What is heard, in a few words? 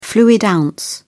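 "fluid ounce", British English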